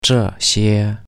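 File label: "这些", Chinese; these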